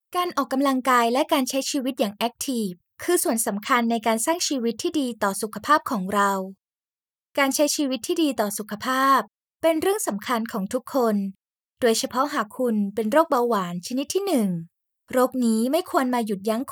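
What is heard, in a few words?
Thai, Thailand, female; thai voice over talent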